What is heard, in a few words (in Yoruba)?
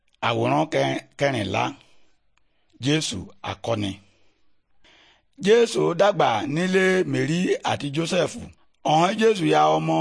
good news yoruba, bible